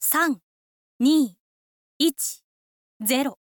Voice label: info lady countdown